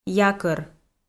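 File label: "якӧр", Komi, female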